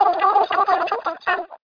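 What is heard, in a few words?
girl talk; Microsoft Graphics Studio Greetings; Microsoft 1998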